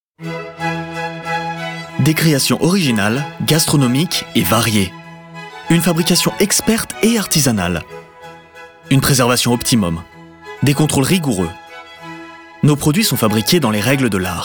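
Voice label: Commercial, French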